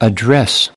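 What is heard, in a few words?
"address" verb, American English; everyone-can-use-english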